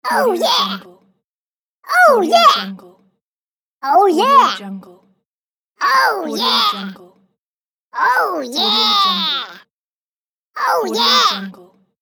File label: cartoon voice oh yeah